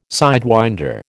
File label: "sidewinder", American